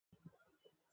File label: voice message